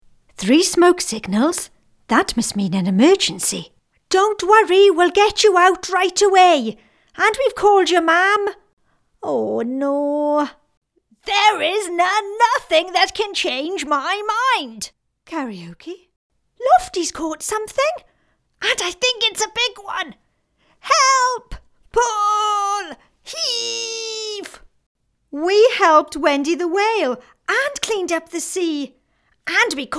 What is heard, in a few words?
Character and Animation Reel